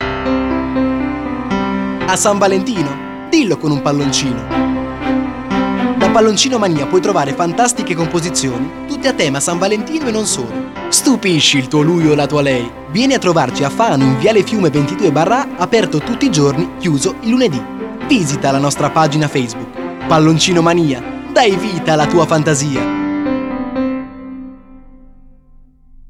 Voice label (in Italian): spot; palloncinomania